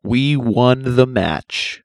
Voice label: red won, announcer; construct